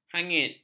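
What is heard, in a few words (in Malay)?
"hangit", Malay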